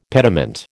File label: "pediment", American